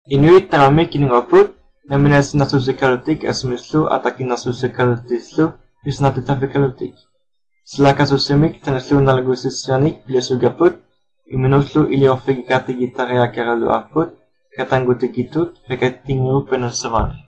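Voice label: udhr kl, Greenlandic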